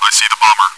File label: chitchat; svencoop